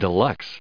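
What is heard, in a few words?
"deluxe", US English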